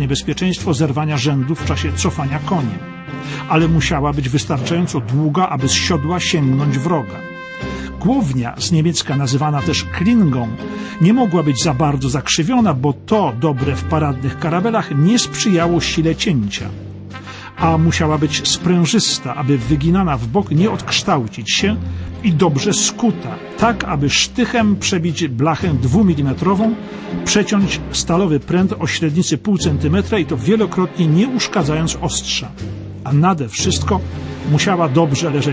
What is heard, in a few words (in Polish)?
Szabla Polska, audiobook; Wołoszański Bogusław; Żołnierze Honoru; Lektor PL